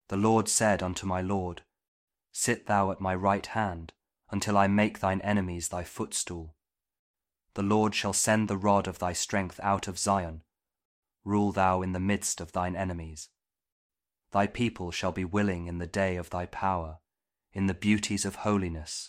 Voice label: psalm kjv king james audio bible